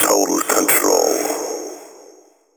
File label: techno male 140; Vocals